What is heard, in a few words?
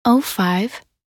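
"05", English; minute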